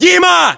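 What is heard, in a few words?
"dima", battlechatter, Russian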